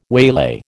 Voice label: "waylay", American